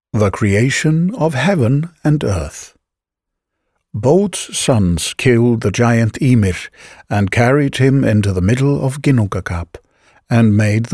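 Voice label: is english, Icelandic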